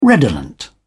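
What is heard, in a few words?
"redolent", English, British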